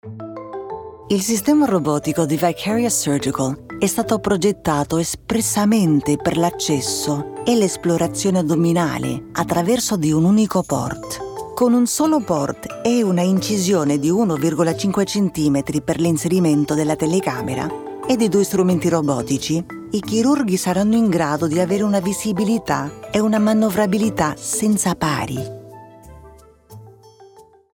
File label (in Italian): Medical Italian